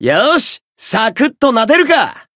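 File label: Voice Japanese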